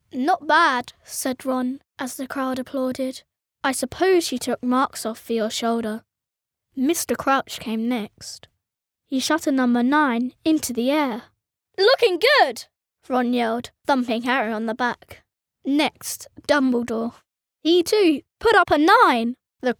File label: girl british voice over character, english british